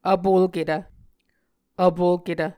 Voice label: "vpolketv", Muscogee

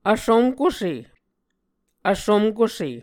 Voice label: "vsumkuse", Muscogee

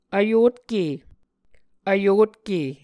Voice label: "vyotke", Muscogee

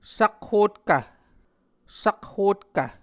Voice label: "sakhotkv", Muscogee

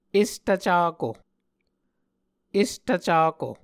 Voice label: "estvcako", Muscogee

